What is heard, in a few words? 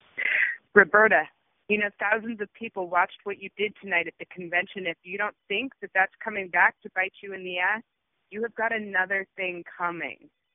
voicemail